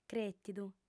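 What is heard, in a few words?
"crètidu", female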